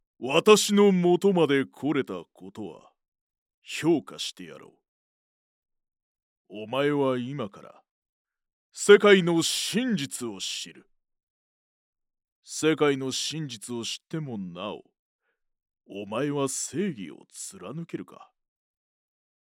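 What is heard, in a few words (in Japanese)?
male, 声優, 豪快な男; narrator men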